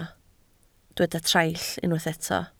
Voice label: "traill", Welsh